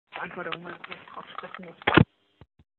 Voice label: Voicemail